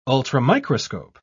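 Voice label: "ultramicroscope", British English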